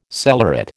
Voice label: "cellaret", American